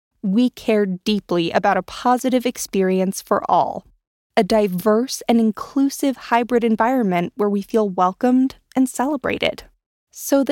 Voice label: corporate